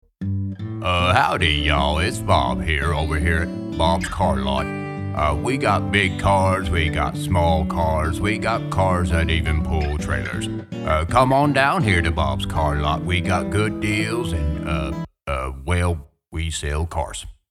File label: Southern Character